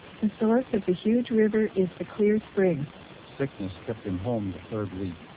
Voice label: amr 475 short snr10db, Source speech AMR-NB 4750 bps